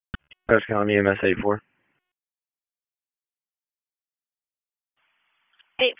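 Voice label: EMS Dispatch, p25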